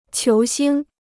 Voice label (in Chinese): "球星", Chinese